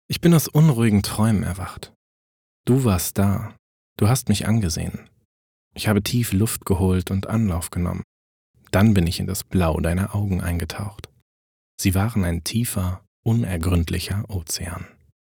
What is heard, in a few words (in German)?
Emotional; Das Blau deiner Augen